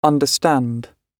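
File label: "understand", British English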